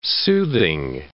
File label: "soothing", english